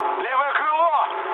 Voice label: left wing, mood med, speech ru; War Thunder